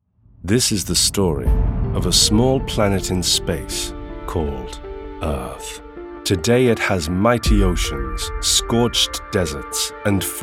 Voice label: TV Narration

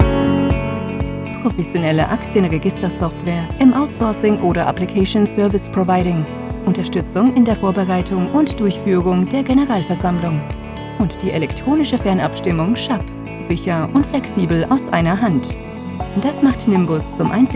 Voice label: Corporate

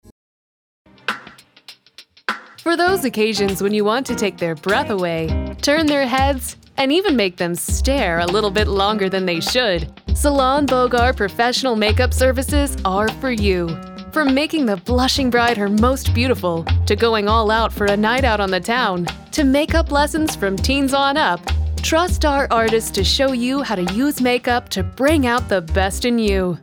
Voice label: Advertising Mature

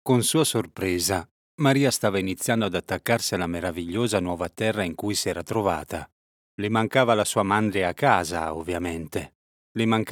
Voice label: ITA AUDIOBOOK FICTION

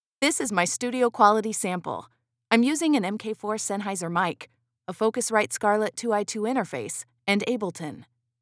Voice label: Studio Sample

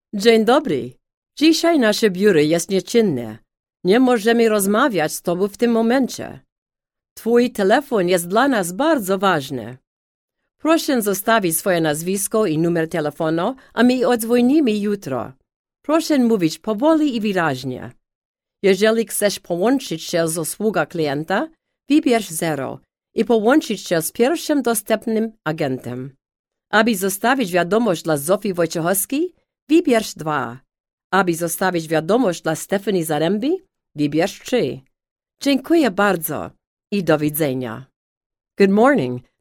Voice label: Polish English IVR

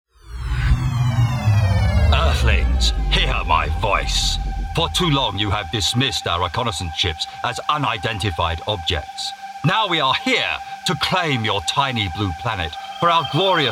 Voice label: Character Showreel

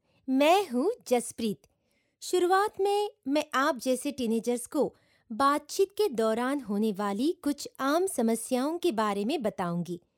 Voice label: Hindi Conversational

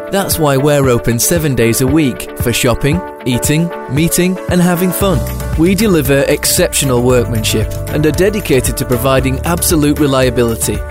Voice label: On Hold

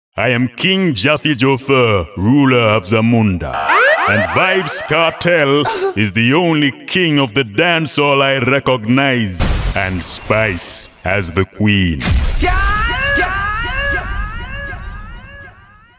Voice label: Impression

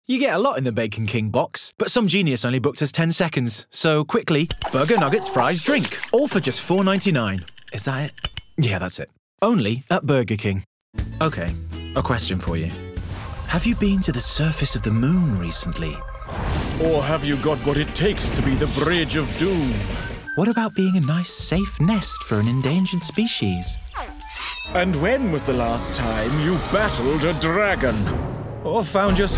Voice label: Commercial, RP Accent

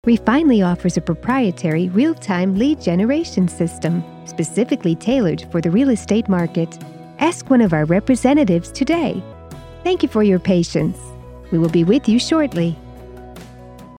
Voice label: On Hold Message

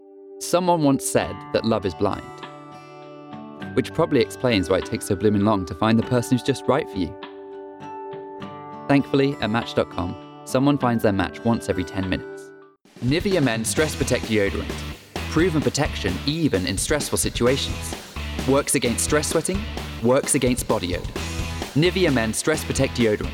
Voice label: Advert Compilation

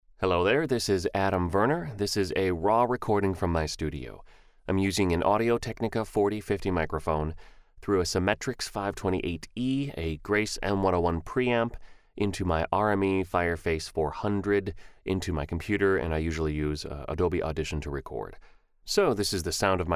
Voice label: Studio Sound

